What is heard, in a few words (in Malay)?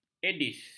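"aedes", Malay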